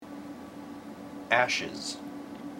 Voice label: "ashes", IPA

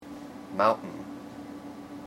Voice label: "mountain", IPA

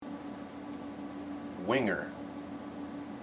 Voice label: "winger", IPA

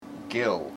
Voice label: "gill", IPA

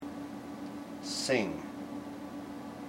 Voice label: "sing", IPA

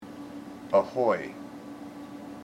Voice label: "ahoy", IPA

